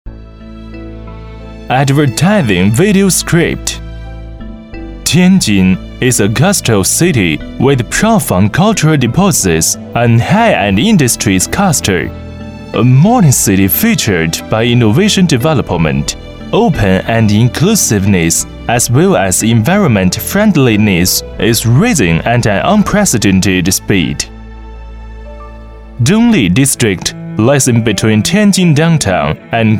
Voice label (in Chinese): 英文配音